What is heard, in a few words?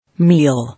"Meal", English